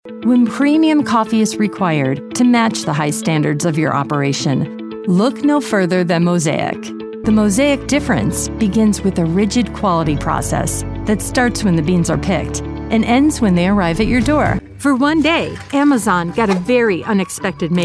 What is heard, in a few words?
40s Female Narration